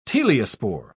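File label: "teliospore", British English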